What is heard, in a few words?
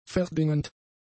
"verdingend", German; adjectives